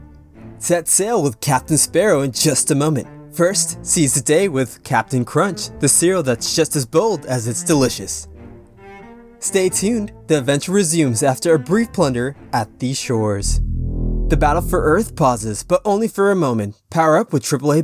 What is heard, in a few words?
commercial break